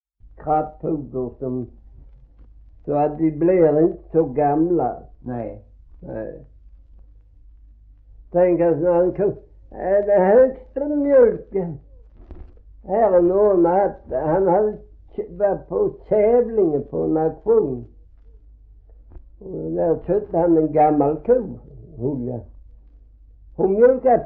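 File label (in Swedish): Gråmanstorp Skåne, dialekter svenska sagor; Folkminnen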